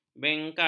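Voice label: "bengkar", Malay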